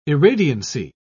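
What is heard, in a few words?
"irradiancy", British English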